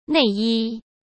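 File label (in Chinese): "内衣", Mandarin Chinese; chinese-flashcards; hsk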